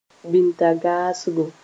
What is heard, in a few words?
"bĩntagagsgo", Mooré; dung beetle